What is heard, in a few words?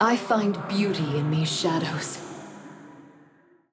vo, en-us; morgana